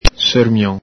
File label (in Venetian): "sermion", Veneto